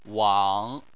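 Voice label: "wang", Chinese, third tone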